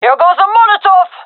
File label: throwing molotov, gendarmerie fem; vo